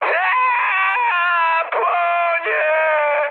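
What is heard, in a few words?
Polish on fire mood high; War Thunder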